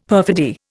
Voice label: "perfidy", Indian; Dictionary

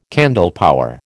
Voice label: "candlepower", American

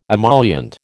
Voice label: "emollient", American

